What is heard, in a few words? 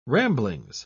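"ramblings", British English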